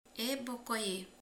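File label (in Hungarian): "kalács, pogácsa", Hungarian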